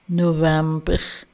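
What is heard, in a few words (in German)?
"Novamber" Alsatian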